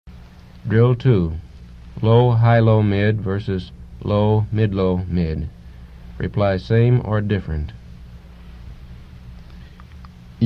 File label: Yoruba; FSI; Basic